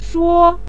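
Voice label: "shuo" neutral tone, Mandarin Chinese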